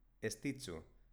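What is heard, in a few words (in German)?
"estitxu", baskische Mädchennamen, Aussprache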